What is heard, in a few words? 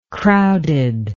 "crowded", English